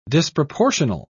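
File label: "disproportional", British English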